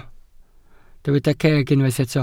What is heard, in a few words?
"ceg", Welsh